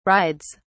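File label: "rides", British English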